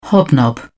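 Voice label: "hobnob", British English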